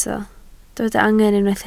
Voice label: "angen", Welsh